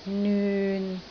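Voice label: "nyun6", Cantonese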